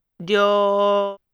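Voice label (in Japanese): "りょ", Japanese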